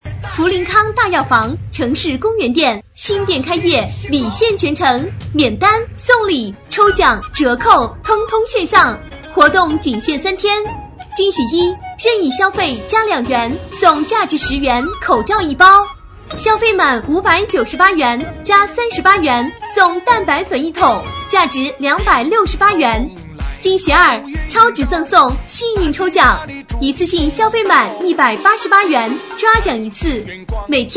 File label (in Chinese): 女声; 福灵康大药房开业